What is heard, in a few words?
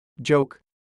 "JOKE", English